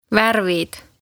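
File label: "värvid", Estonian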